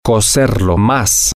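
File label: to cook it more, spanish, male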